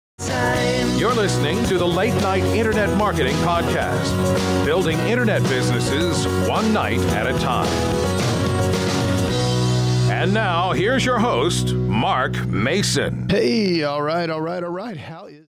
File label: Podcast Intro